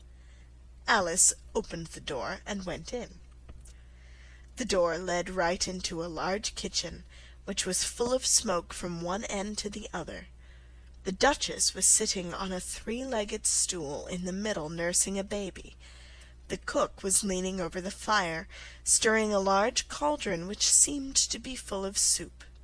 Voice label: alice in wonderland slow